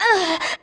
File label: female; baldurs1